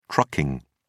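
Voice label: "trucking", British English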